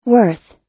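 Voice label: "worth", US English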